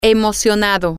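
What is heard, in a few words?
Spanish, female; excited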